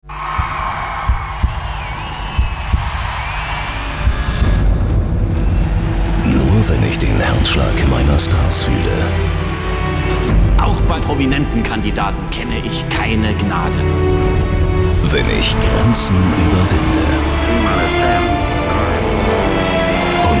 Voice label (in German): station voice trailer highlights; rtl